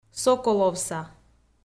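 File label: "соколовса", Komi, female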